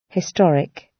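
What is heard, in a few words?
"historic", British English; Time, history; Thời gian, lịch sử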